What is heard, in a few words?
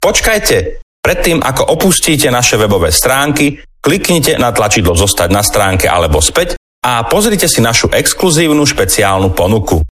IVR Slovakian Dry